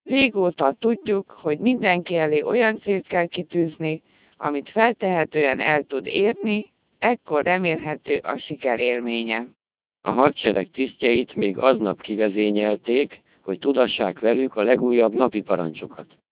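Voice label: speech CODEC2 2400 bps, Hungarian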